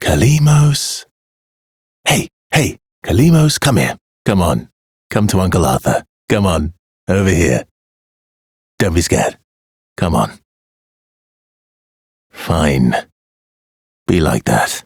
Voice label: Ambient Barks, en; Warframe; Mall Romance; Arthur

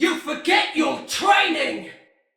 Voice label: Attack, Male, en; Sinecure Banneret

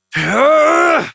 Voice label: Abil Exert Adult en

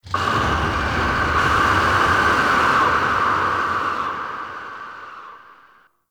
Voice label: Sentient Vessel Delay Pain; Warframe; Tau

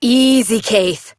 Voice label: Horse Stop Adult; Duviri

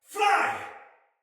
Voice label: Shoot Arrow Soldier Male; Battle; Barks; Dialog; Sinecure Soldier Male A

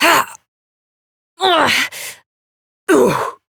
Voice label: Struggle Long Adult; New War; Operator

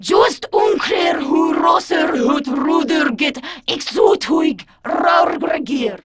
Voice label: Patrol, Grineer Female; Warframe; Battle Barks

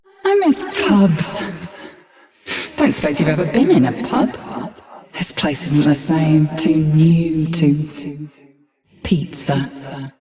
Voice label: Ambient Barks, en

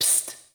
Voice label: Student Whisper; Cinematics; Warframe; TheNewWar; Zariman

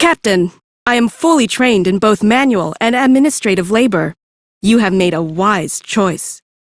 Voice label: Crew Hired, female; Warframe; Railjack; Cephalon Suda

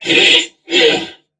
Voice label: Struggle Crewmen; Warframe; Corpus Crewmen; Crewman E; Dialog Barks Battle

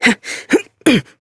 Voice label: Horse Mount Adult, Dialog; Duviri

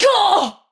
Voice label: Pain Ranged, Battle Barks; Tau; Tau Dax

